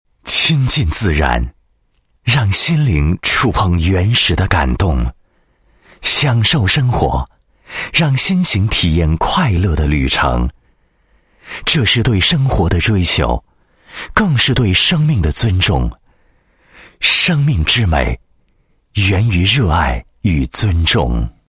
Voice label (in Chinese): 男 宣传广告 餐饮广告